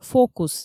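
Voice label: "фокус" Komi, female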